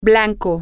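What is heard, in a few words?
Spanish, white, female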